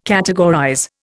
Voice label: "categorize", Indian

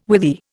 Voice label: "withy", Indian

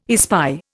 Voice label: "espy", Indian

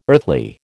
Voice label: "earthly", American

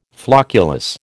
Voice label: "flocculus", American

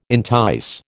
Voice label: "entice", American

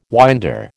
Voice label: "winder", American

